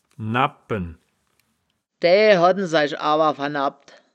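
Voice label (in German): "nabpen", Wittlicher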